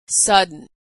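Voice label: "sudden", American English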